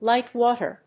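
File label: "lightwater", English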